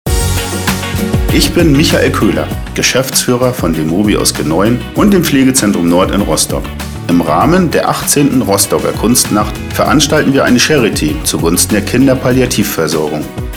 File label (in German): funkspot; pflegenzentrum nord; kunstnacht 2018